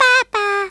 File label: "bye bye", Hungarian; Worms Armageddon